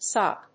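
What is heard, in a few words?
"sop", English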